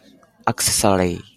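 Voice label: "accessory", Japanese, male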